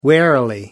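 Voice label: "warily", English, British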